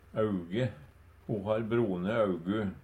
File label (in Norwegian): "auge", Numedalsmål; Substantiv hankjønn; Ho har brone augu.